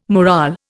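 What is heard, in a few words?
"morale", Indian English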